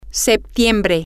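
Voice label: female, spanish; september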